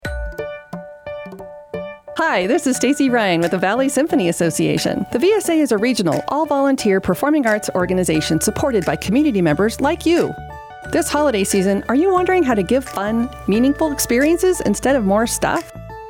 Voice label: Christmas concert promo